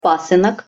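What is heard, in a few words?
"pasynok", Russian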